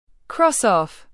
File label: "cross off", school instructions